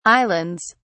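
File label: "islands", British English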